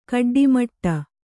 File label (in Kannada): "ಗೊಡ್ಡಮಟೆ", Kannada